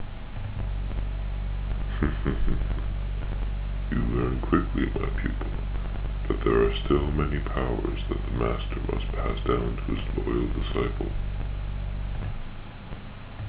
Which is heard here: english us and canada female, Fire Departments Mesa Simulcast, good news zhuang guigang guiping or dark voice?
dark voice